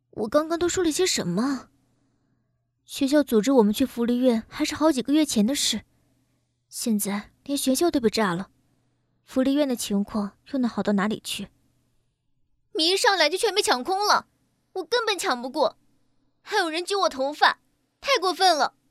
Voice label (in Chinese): 女 角色 少女学生